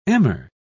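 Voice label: "emmer", British English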